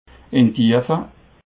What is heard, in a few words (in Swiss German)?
"tiefe", alsace